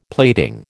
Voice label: "plating", American

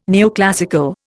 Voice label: "neoclassical", Indian

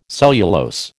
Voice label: "cellulose", American

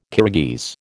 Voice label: "Kirghiz", American

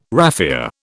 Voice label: "raffia", British

